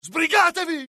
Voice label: alert, dialogue IT; mohaa; attack